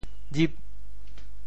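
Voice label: "jip8", Teochew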